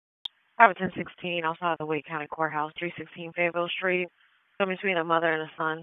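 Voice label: Police Dispatch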